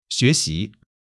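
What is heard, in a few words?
"xuéxí", Chinese; 基础汉语40课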